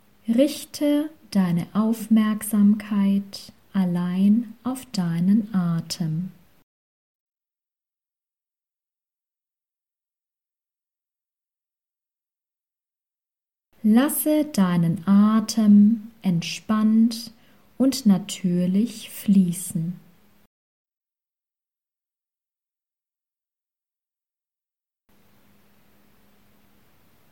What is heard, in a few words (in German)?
Meditation Autogenes Training Text mit A; Laut A lang/kurz; Aussprache-Akademie; Modul 1 - Vokale Einzellaute